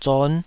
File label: "zon5", taiwanese